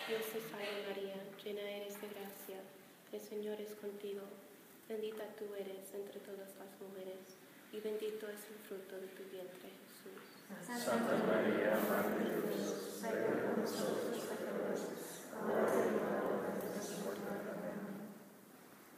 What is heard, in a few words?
employees pray rosary in spanish; usccb; for respect life mass